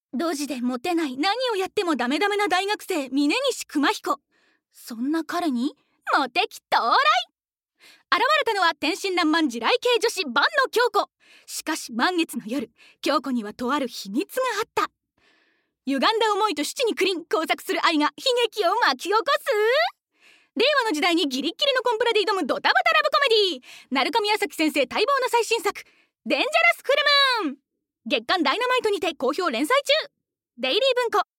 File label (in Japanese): ナレーション